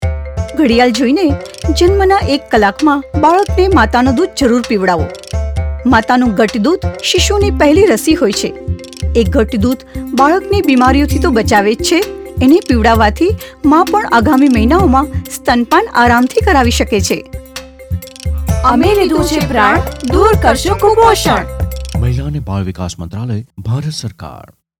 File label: Early Breastfeeding Gujarati, Audio Spot; poshan